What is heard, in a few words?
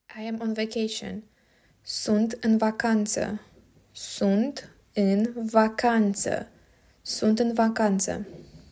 "I am on vacation", Romanian